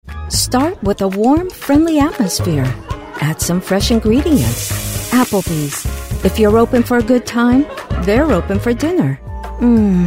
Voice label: Commercial